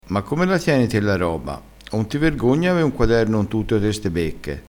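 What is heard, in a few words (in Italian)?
"becca", vocabolario dialettale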